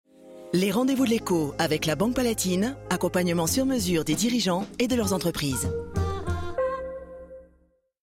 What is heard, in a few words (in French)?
Billboard Radio Droit rassurant; BANQUE PALATINE 2015; Radio Classique